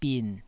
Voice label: "bin3", taiwanese syllables

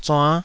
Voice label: "zuann5", taiwanese

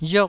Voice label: "riok4", taiwanese syllable

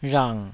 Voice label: "rhang3", Taiwanese

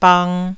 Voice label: "bang1", taiwanese syllable; 台語音節發音檔案

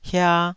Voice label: "hia2", taiwanese

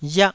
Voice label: "riah4", taiwanese

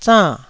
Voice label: "zann4", taiwanese Syllables

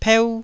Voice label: "peu1", taiwanese

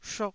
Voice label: "shuk4", taiwanese Syllables

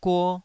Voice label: "guo2", taiwanese

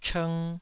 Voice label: "chng2", taiwanese

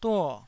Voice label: "duo4", Taiwanese

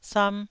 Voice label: "sam1", taiwanese syllable

